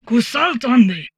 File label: humanoid portrait female; Stellaris; humanoids